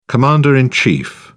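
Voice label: "commander in chief", English, British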